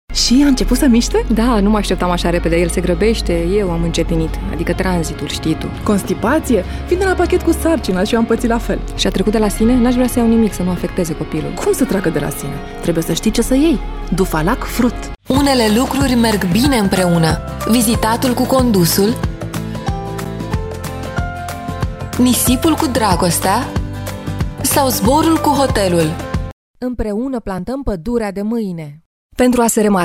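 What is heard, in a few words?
Romanian, female; lektoring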